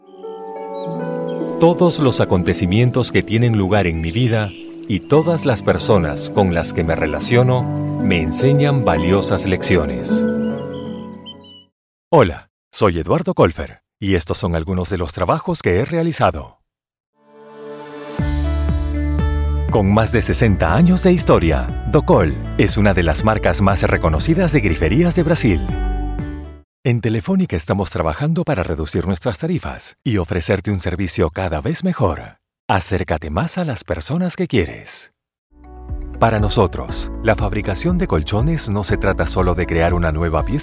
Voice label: Spanish voice over corporate promotion